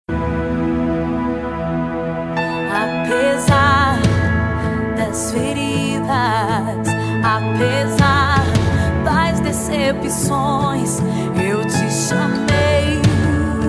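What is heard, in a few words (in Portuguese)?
Aniversário Evangélico para Irmã Masculino, telemensagem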